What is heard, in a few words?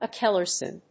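"acceleron", English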